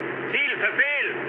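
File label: German bomb failure mood med, speech; War Thunder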